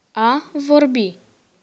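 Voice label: to speak, Romanian; PictureDictionary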